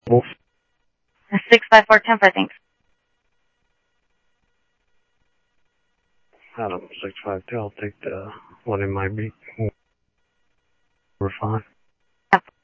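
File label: Sheriff East County, scanner audio trunk; MCSO - EAST; South Scottsdale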